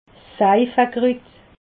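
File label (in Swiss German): "seifekrut", Alsatian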